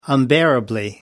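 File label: "unbearably", British English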